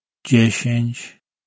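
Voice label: "djeshench" Polish male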